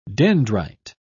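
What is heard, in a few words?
"dendrite", British English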